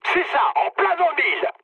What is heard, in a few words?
bomb success mood med, speech fr; War Thunder